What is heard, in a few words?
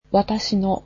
"my", japanese